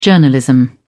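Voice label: "journalism", British English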